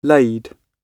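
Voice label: "laid", British English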